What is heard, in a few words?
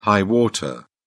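"high water", English, British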